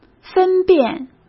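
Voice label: "分辨", Mandarin Chinese; chinese flashcards; hsk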